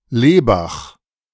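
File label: "Lebach", German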